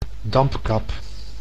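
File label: "dampkap", Dutch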